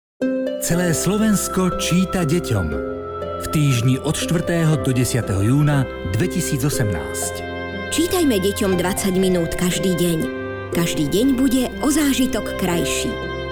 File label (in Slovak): spot